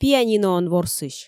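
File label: "пианиноӧн ворсысь", Komi, female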